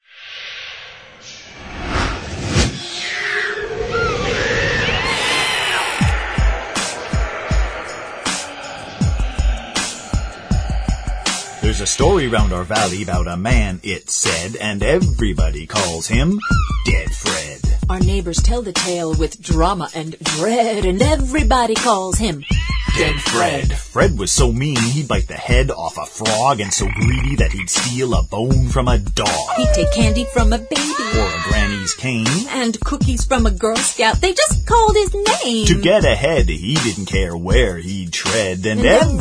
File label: golden arm rap